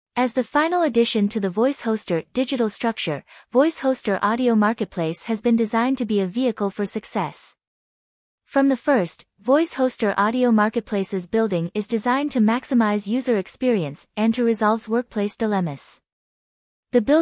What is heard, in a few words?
AI Female English